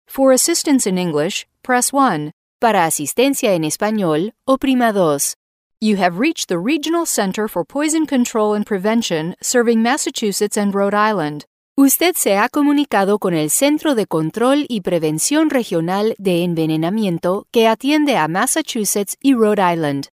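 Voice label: Bilingual Spanish English IVR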